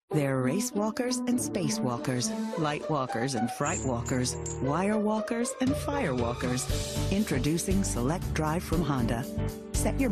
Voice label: Commercial Knowledgeable